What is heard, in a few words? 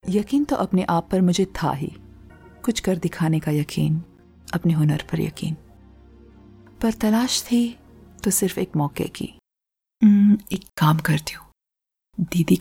Hindi conversational